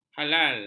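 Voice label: "halal", Malay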